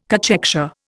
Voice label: "cachexia", Indian